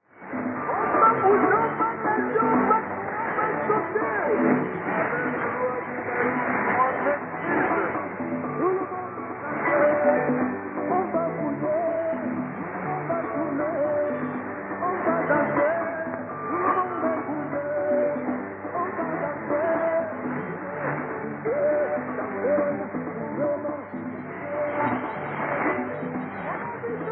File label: 6250kHz; Radio Malabo